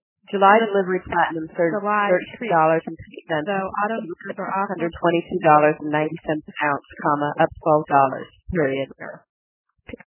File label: female female